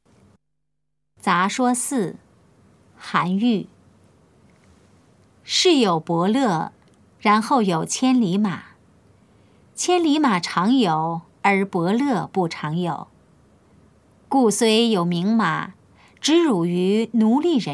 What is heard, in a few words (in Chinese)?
putonghua; 雜說四; 普通話